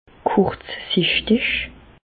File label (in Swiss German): "kurzsichtig", Bas Rhin; medecine; myope